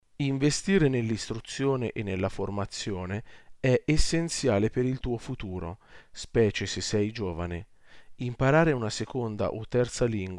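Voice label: 1-minute trial dictation Italian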